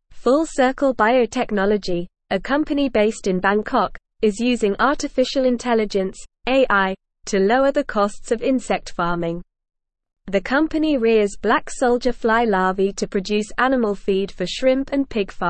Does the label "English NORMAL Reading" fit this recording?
Yes